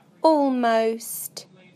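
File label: "almost", British English; PictureDictionary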